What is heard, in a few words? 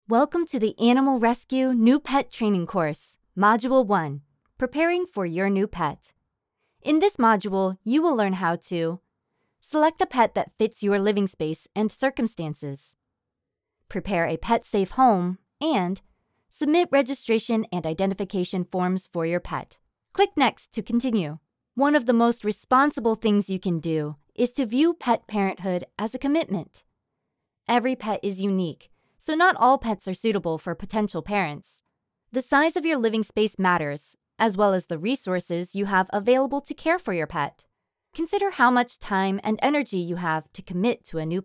eLearning Friendly Bright; Pet Module; American English voice-over artist